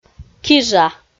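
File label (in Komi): "кижа", Komi, female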